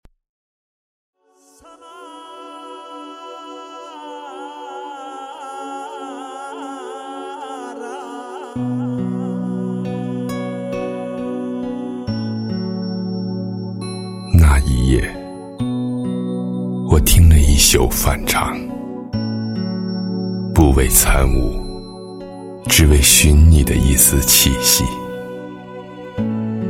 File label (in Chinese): 朗诵 诗歌; 仓央嘉措的诗